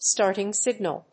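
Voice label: "STARTING SIGNAL", English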